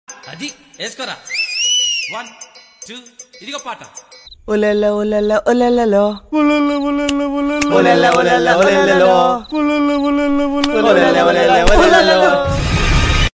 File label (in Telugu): telugu best ringtone telugu funny dialogues